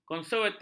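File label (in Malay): "konservatif", Malay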